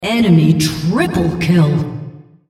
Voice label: female, triple kill

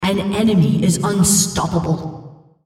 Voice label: female Killing Spree

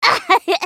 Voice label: spellcast effort; Annie

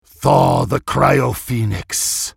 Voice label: Attack, en US; Anivia; SpiritSummoner

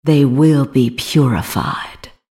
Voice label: attack, en US; Vayne

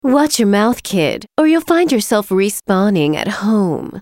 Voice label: taunt, en US; MissFortune

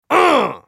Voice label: effort; Brolaf; Character Voices - English

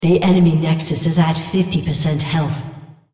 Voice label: female Nexus Enemy; Odin

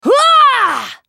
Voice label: ultimate effort; Irelia

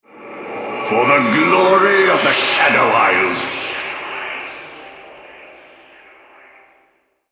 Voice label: Altar Capture, Male

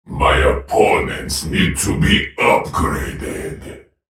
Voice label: taunt, en US; Viktor; Character Voices - English

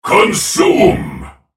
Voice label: ultimate effort, en US; Viktor; Character Voices - English

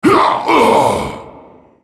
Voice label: dying, en US; GatekeeperGalio; Character Voices - English

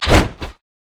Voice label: battlecry; Xin Zhao

